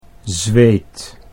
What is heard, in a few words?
"zweet", Dutch